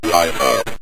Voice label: life Up, Synth Voice; Kenney's Sound Pack

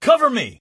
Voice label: cover me, Male; war; Kenney's Sound Pack; Voiceover Pack

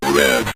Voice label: "red", synth voice; Kenney's Sound Pack

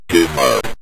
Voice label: give Up, Synth Voice; Kenney's Sound Pack

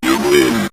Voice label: "you win", synth voice; Kenney's Sound Pack

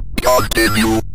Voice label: menu continue, Synth Voice; Kenney's Sound Pack; Synth Voice 1

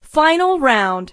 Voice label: "final round", female; Kenney's Sound Pack; Voiceover Pack

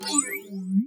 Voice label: User Interface Vocalisation, Robotic, Futuristic; Organic User Interface

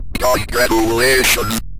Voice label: "congratulations", Synth Voice; Kenney's Sound Pack; Synth Voice 1

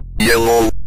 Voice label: color yellow, Synth Voice; Kenney's Sound Pack; Synth Voice 1

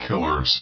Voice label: killers dry, powerful commander robot voice; Leevaudio

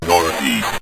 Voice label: north East, Synth Voice; Kenney's Sound Pack

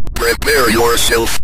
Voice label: prepare yourself, Synth Voice; Kenney's Sound Pack; Synth Voice 1

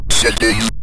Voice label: menu settings, Synth Voice; Kenney's Sound Pack; Synth Voice 1

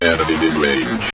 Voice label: enemies In Range, Synth Voice; Kenney's Sound Pack

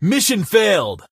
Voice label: mission failed, Male; Kenney's Sound Pack; Voiceover

